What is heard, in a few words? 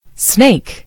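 "snake", British English; gcompris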